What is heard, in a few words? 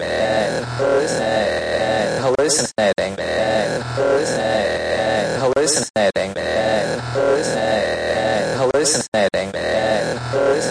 151 hallucinating stutter vox; VOCAL OR VOXY LOOPS